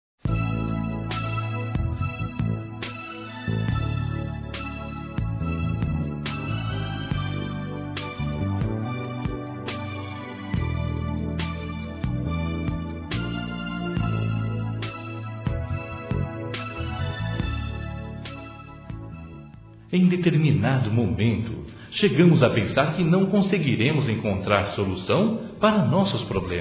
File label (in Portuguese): Geral Masculino, Telemensagens; Telemensagens 2017